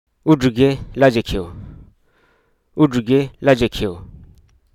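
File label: Hruso Aka; elevate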